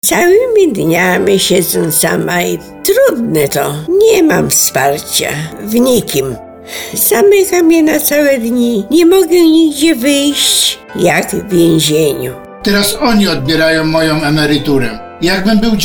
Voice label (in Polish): Spot radiowy